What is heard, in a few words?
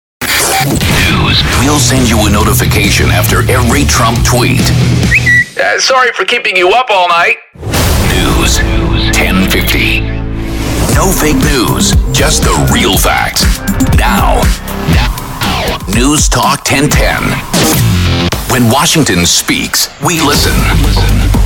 Radio Jingles Liners; Jugendfrei; NATIVE PROFISPRECHER